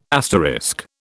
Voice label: "asterisk", British